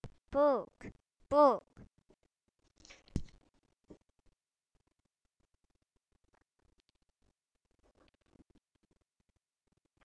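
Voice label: "book", spoken word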